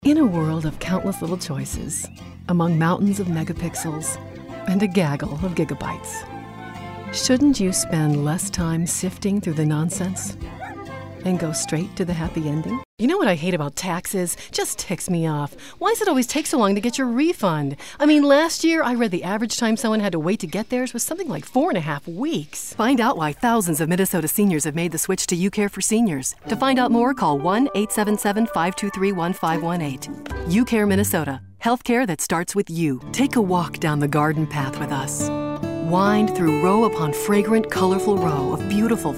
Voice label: Voiceover